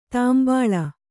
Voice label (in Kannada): "ತಂಬಳಿ", Kannada